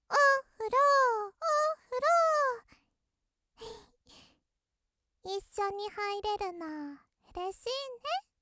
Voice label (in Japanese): ロリ おふろだよ